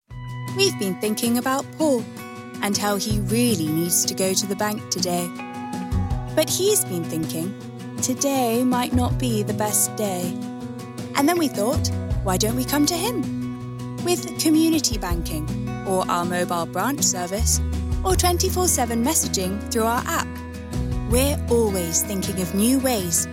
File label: Corporate Commercial; Natwest